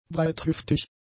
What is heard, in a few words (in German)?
"breithüftig", German; adjectives